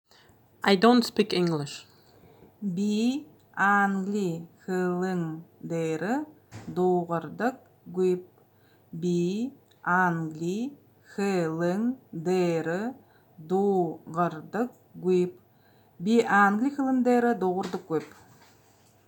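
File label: I dont speak English, Buryat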